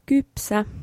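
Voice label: Finnish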